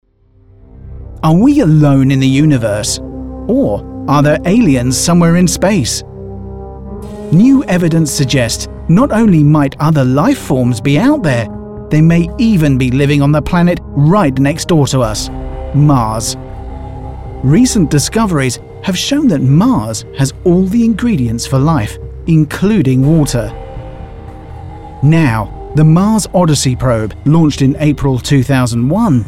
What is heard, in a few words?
Narrative